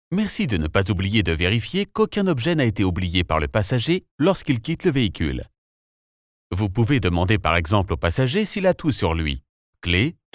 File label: elearning